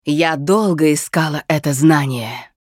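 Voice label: Hero Level Up Russian, Женщина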